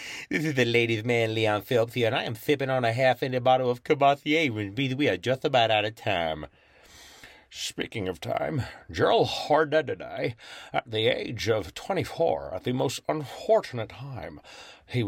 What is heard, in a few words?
Animation